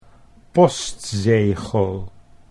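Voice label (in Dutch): "postzegel", Dutch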